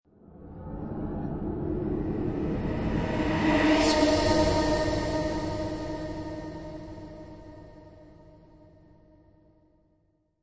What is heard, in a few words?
Reverse, Female, reverse, w. scary FX, ambience, fear, distress, speaking; human voice; Talking Sound Effects